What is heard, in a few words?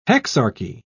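"hexarchy", English, British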